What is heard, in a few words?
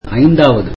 tamil fifth